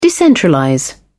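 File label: "decentralize", English, British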